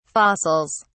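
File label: "fossils", English, British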